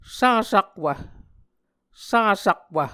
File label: "sasakwv", Muscogee